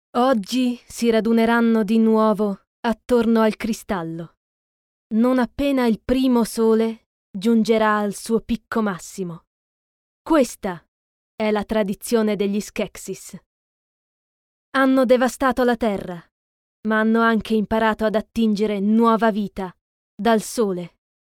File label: ITA Character Oracle